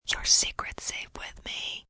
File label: Secrets Safe, female, whispering